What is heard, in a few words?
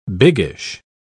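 "biggish", British English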